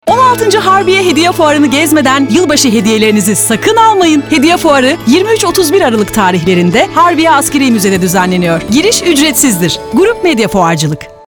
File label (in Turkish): enerjik; seslendirme